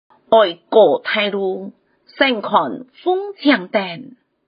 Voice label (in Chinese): (海陸)客語生活常規